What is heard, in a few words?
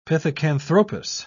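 "pithecanthropus", British English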